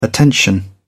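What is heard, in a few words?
"attention", English, British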